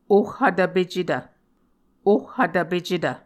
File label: "ohhvtvpecetv", Muscogee; Mvskoke Words with Repeated Consonants; A Dictionary of Creek/Muskogee; SIL International